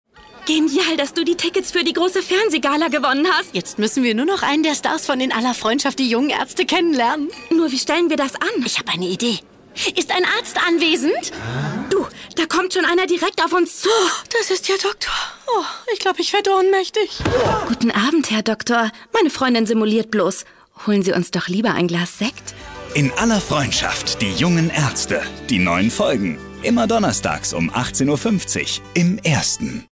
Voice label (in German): Werbung; In Aller Freundschaft